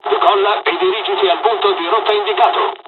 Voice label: mission fly, dialogs italian